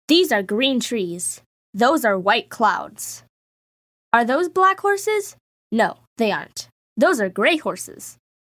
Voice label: child voice over